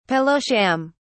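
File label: "pillow sham", British English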